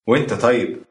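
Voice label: birthday, Egyptian Arabic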